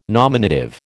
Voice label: "nominative", American